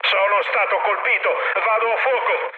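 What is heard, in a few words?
Italian on fire mood med, speech; War Thunder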